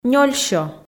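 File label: "нёльсё", Komi, female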